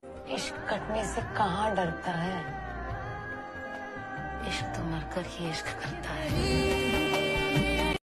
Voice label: ishq poetry